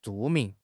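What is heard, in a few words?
"zhu4 ming2", Mandarin Chinese; HSK4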